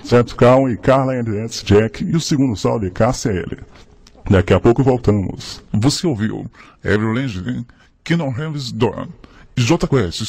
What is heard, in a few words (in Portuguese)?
locutor fluente em ingles